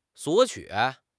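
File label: "索取", Mandarin Chinese; HSK6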